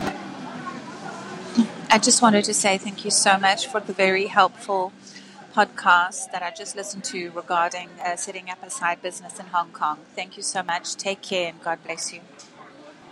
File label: Thank you so much testimonial message